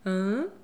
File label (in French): "hein" etonnement; khanat